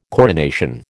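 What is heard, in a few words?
"coronation", American English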